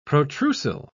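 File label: "protrusile", British English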